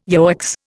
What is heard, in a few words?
"yoicks", Indian